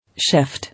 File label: "Shift", English